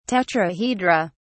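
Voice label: "tetrahedra", English, British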